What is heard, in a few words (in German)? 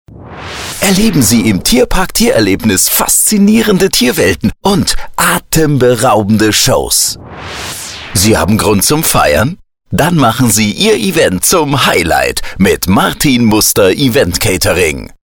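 begeistert aktionistisch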